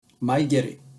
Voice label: "mae geri", basic japanese words; Basic Japanese Terms

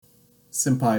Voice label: "Sempai" Japanese

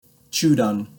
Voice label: "Chudan" Japanese

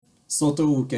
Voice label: "Soto Uke", Japanese; Basic Japanese Terms

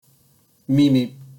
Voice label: "mimi" Japanese

